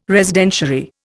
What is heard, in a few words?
"Residentiary", Indian